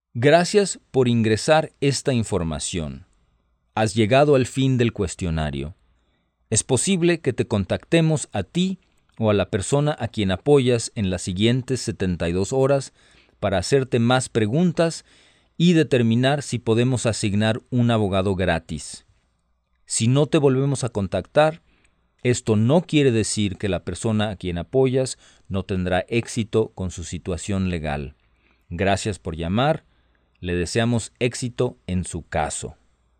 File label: Spanish, hotline